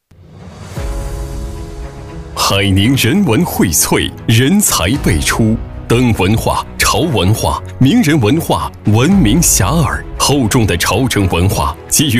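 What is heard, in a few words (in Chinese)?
男【宣传】; 城市海宁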